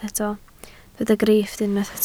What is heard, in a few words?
"grifft", Welsh